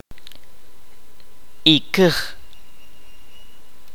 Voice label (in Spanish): "hacha", Kaqchikel